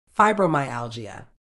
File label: "fibromyalgia", uk